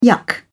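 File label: "yuck", British English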